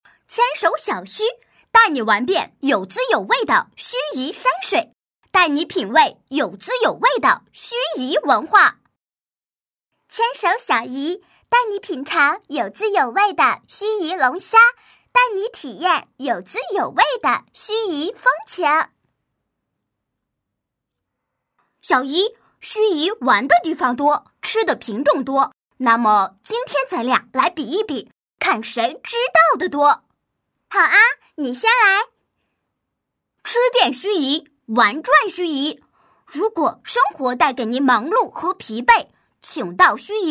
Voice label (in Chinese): 女 童声 旅游推介盱眙